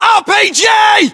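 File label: threat rpg, battlechatter British; localized english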